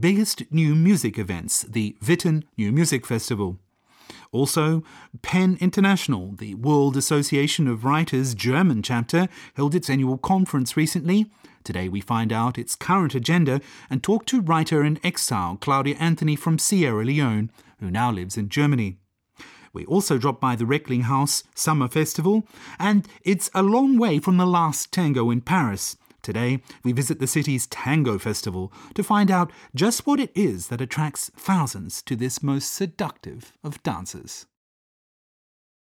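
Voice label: narration arts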